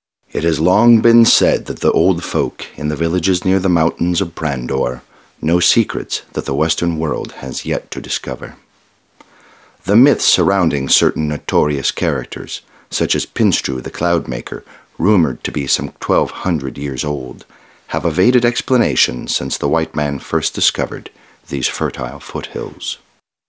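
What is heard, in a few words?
male English documentary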